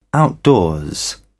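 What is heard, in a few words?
"outdoors", British English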